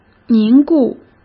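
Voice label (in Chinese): "凝固", Mandarin Chinese; hsk; chinese-flashcards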